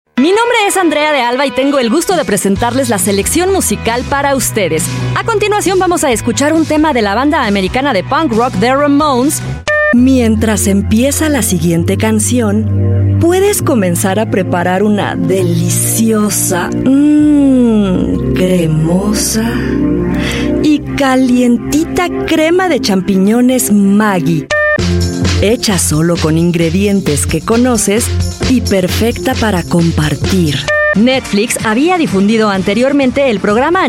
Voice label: spanish voice over female commercial